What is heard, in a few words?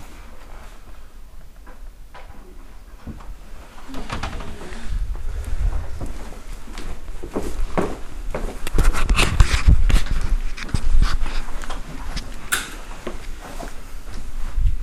Old Man; Woodlands Chatham